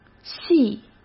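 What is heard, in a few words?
"戏", Mandarin Chinese; chinese-flashcards; hsk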